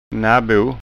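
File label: "nabo", Scanian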